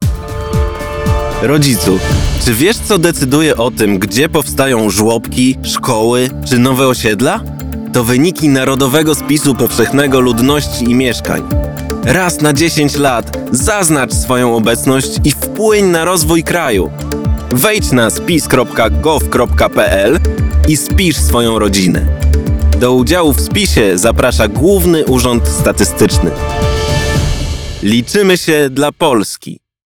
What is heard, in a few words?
radio spot